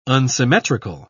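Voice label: "unsymmetrical", British English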